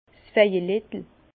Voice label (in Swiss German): "vejelettel", Bas Rhin; violette